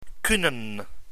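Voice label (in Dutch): "kunnen", Dutch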